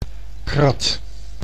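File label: "krat", Dutch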